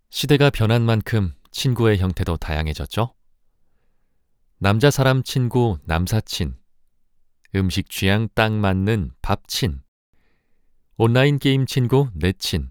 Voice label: 공익광고

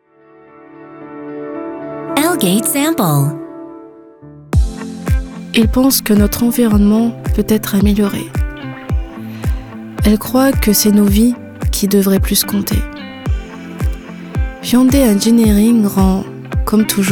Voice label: 프랑스 여 차분한톤

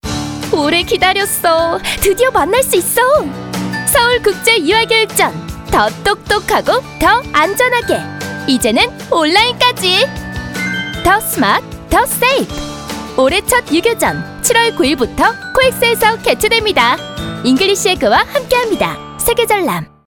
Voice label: 광고 유아교육전 밝은 20대; RCM

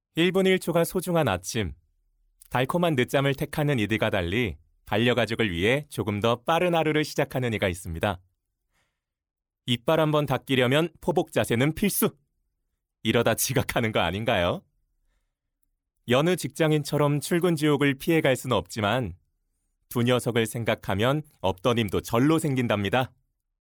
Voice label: 20대 밝은 내레이션

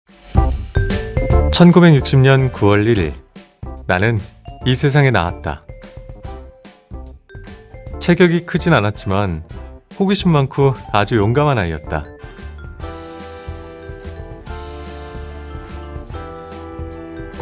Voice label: 홍보; 아주그룹

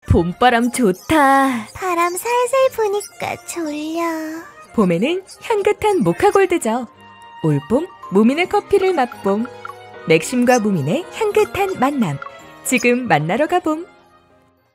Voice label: 캐릭터 내레이션 10대 20대; 무민캐릭터내레이션

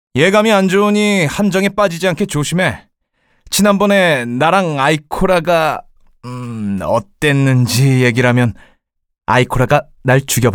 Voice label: 20대 남자 능청 건방; 케이드

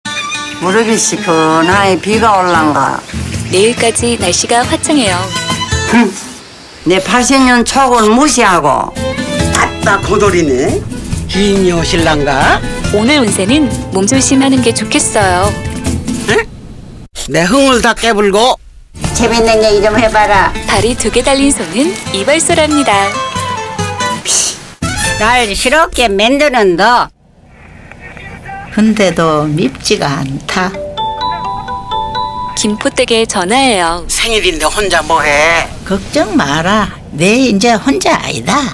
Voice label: CM 인공지능 목소리; skt; 누구

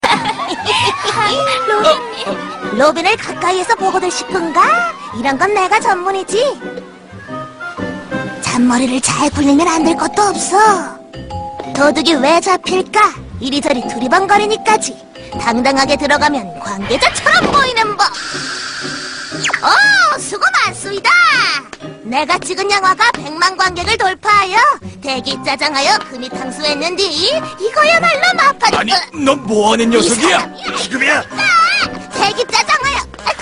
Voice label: 호들갑스러운 귀여운 남아 10대; 유희왕 제알; 사기준

